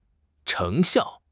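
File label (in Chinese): "成效", Mandarin Chinese; HSK6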